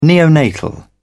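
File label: "neonatal", British English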